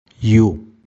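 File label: "Yu" Garhwali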